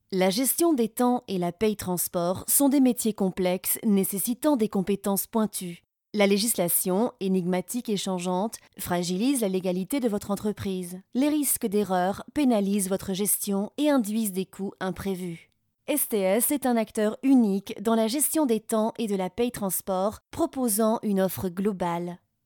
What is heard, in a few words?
Corporate French